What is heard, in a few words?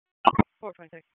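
Police Dispatch, p25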